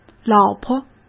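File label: "老婆", Mandarin Chinese; hsk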